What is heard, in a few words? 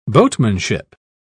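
"boatmanship", British English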